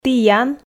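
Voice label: "тіян", Komi, female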